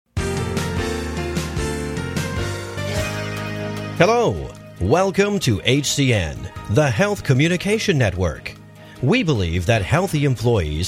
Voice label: Corporate VOs

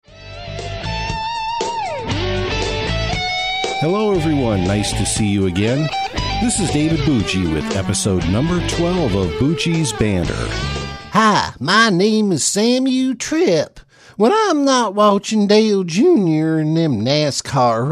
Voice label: Voice Talent Podcast

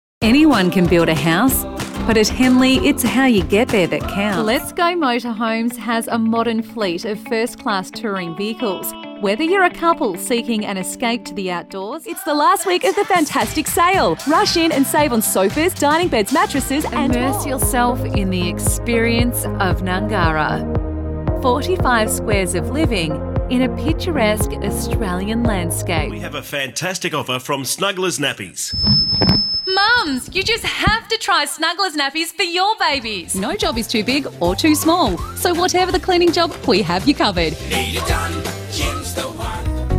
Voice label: Voice-Over

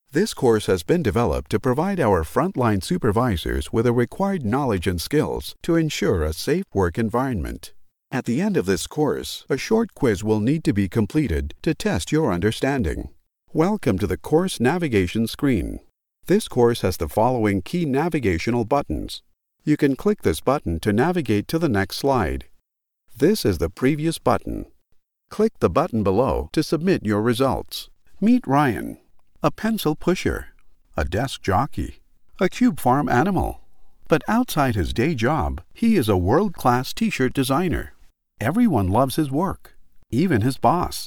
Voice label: Corporate e-Learning narration

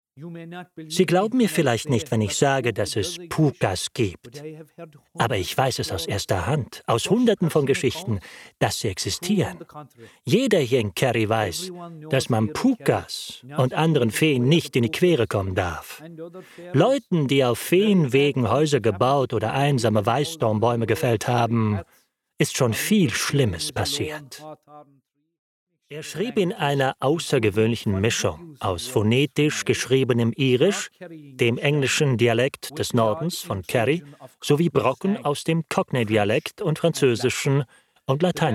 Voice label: slow smooth storytelling dubbing German

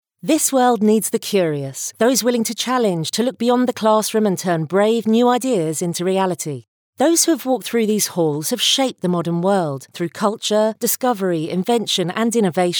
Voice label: advert university

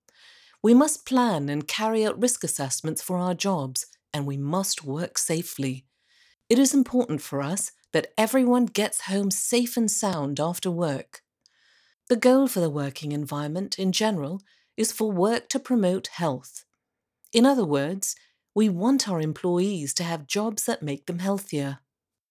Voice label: eLearning safety course

